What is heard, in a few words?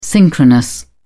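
"synchronous", British English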